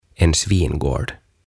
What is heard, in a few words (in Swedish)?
"En Svingård" "Sikala"